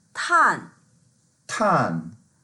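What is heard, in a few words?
"tan4", Mandarin Chinese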